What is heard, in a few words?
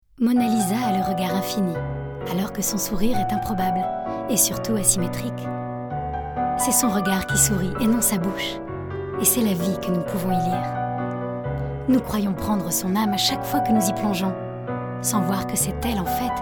commercial, French, female